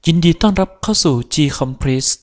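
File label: welcome, Brazilian Portuguese; gcompris-data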